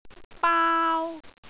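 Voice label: "baau1", Cantonese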